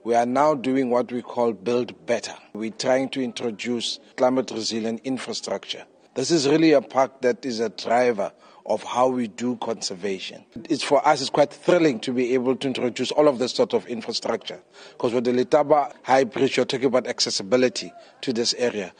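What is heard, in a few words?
English; H4-1 heropen betyds vir parkeweek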